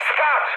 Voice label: bailout mood med, speech pol; War Thunder

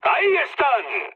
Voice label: enemy detected, mood med, speech; War Thunder

